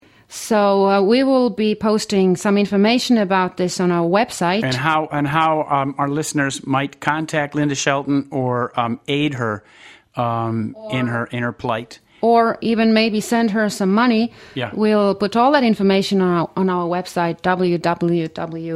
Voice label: voice in background